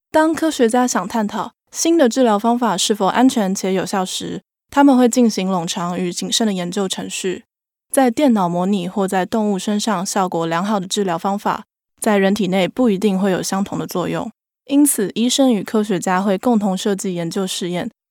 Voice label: Chinese Taiwanese